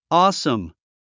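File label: "awesome", English word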